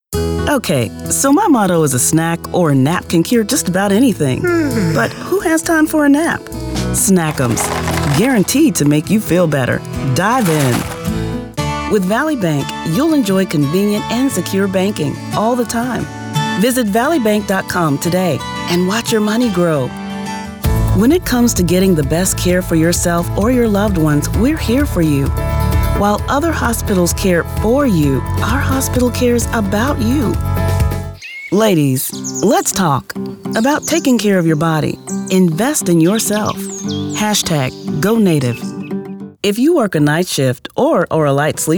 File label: Commercial Demo